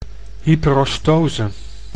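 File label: "hyperostose", Dutch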